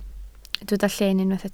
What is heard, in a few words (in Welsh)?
"lleen" Welsh